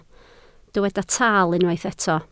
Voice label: "taal", Welsh

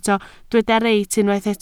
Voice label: "reit", Welsh

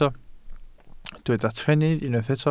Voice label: "trennydd", Welsh

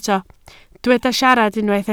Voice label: "siarad", Welsh

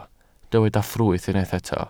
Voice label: "ffrwyth", Welsh

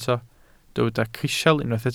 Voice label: "crisial", welsh

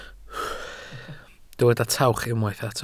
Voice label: "tawch", Welsh

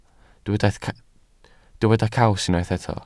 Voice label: "caws", welsh

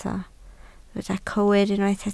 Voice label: "cywir", Welsh

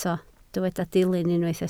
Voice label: "dilyn", Welsh

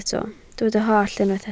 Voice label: "hallt", Welsh

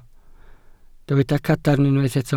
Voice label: "cadarn", Welsh

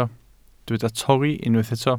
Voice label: "torri", Welsh

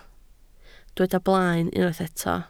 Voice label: "blaen", welsh

